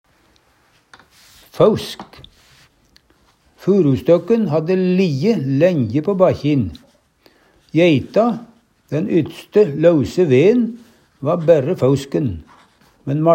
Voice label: "fausk", Numedalsmål

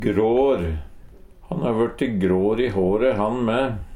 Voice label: grar, Numedalsmål; Han va vørte grår i håre han me.

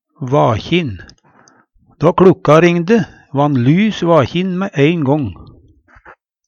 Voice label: vakjin, Numedalsmål; Æ du vakjin? Dæ æ ein vakjin onje.

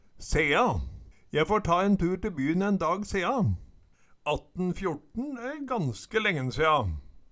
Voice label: sea, Numedalsmål; Eksempel på bruk Je får ta en tur te byn en dag sea. 1814 e ganske lengen sea.

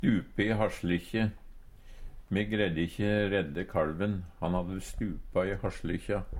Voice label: stupe i hassjlykkje, Numedalsmål

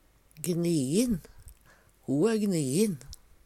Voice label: gniin, Numedalsmål; Adjektiv

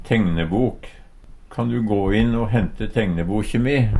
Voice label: tegnebok, Numedalsmål; Substantiv hokjønn; Personleg utstyr, klede, sko; Kan du gå inn o hente tegnebokje mi?

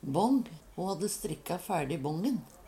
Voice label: "bång", Numedalsmål; Substantiv hankjønn; Personleg utstyr, klede, sko

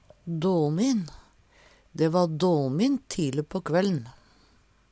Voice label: "dåmin", Numedalsmål

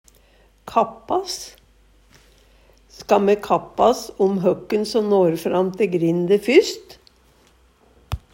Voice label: kappas, Numedalsmål

